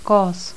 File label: dental sibilant fricative, toda